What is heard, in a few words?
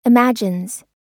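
"imagines", US English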